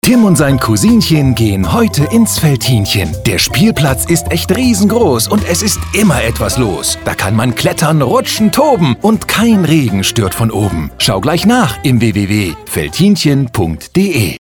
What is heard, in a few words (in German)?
Funkspot; Veltinchen